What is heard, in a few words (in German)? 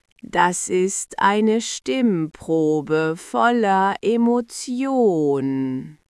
Geist, Stimmen; moods